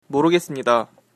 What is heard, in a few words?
no understand, korean